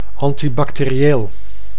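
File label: "antibacterieel", Dutch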